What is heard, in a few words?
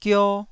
"gio1", taiwanese